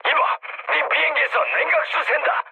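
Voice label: Korean damaged water mood med, speech; War Thunder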